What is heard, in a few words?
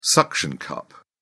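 "suction cup", British English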